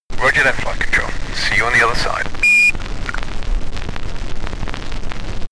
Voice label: astronaut chatter

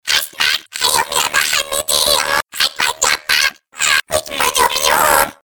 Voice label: alien speech

